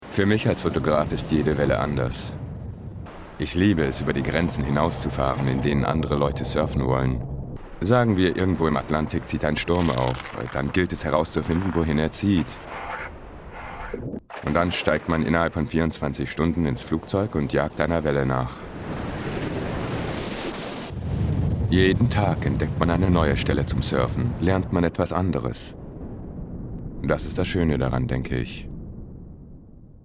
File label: Commercial; Grambeau